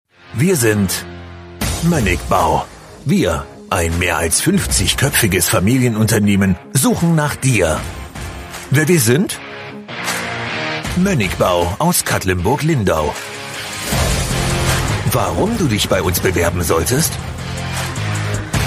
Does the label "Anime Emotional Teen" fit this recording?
No